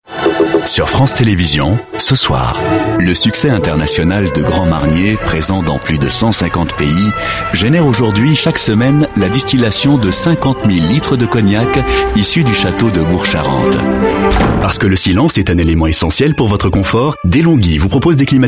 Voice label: French, Male